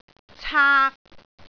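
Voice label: "caak3", Cantonese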